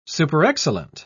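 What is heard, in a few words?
"superexcellent", English, uk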